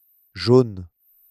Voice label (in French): "jaune", French